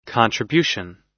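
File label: "contribution", US English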